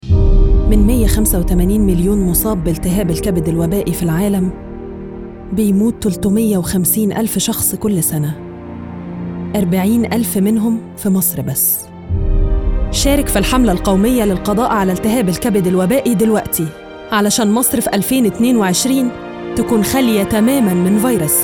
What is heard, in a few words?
EG Commercial; VirusC